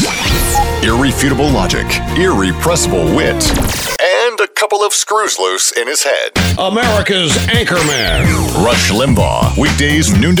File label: News Talk